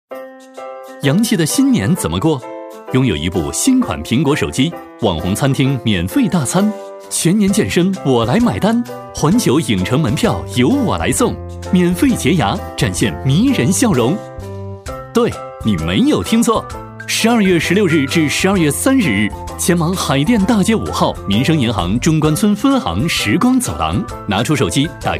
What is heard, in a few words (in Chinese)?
男 MG风格 轻松欢快; 产品介绍; MG飞碟说配音